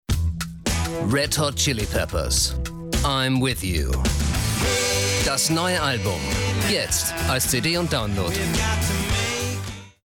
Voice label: spot

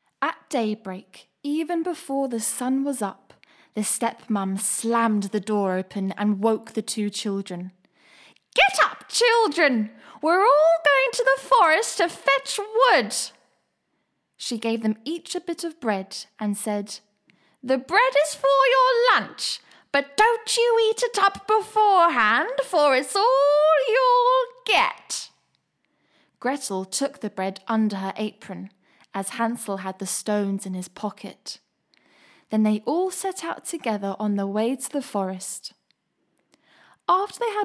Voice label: audio book; hansel and gretal

